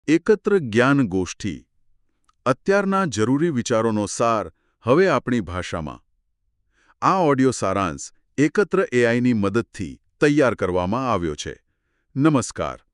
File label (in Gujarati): Audio gujarati; blue ocean strategy